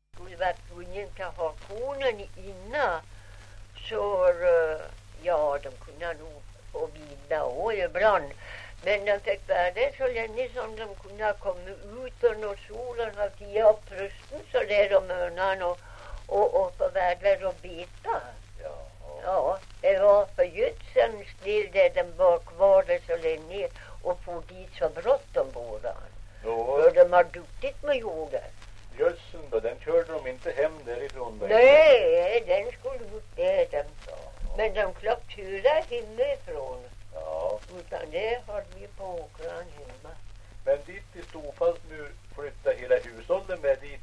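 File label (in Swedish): Ovansjö Gästrikland, dialekter; Folkminnen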